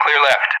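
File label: "clear left", English; Messages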